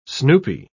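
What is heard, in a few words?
"snoopy", British English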